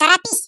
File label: Hurry, voices, ru; Hedgewars; Default ru